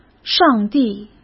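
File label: "上帝", Mandarin Chinese; hsk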